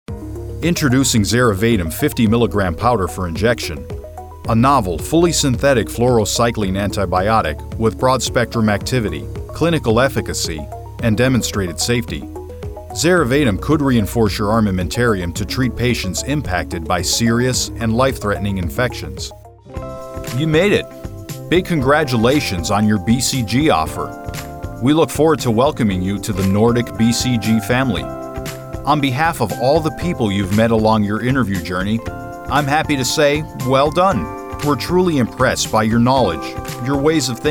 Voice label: NARRATION